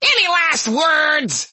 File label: demon tridents taunt